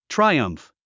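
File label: "triumph", English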